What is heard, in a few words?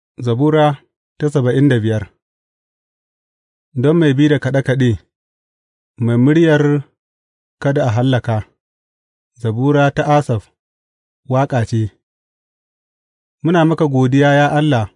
hausa bible drama, psalms; hausa bsn nt drama